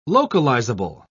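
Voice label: "localizable", English, British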